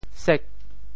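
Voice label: "sek8", Teochew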